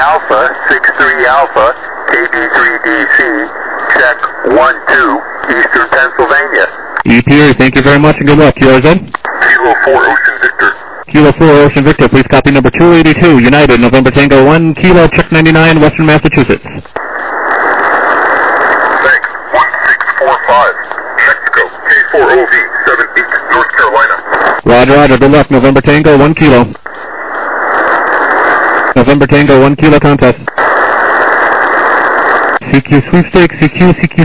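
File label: lower sideband 7MHz; SSB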